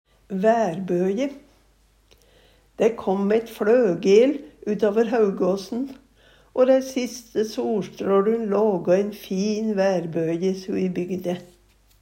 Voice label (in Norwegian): vaerboje, Numedalsmål